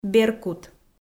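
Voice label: "беркут" Komi, female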